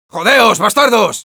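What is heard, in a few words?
Sniper taunts, Spanish; ¡Jodeos, bastardos!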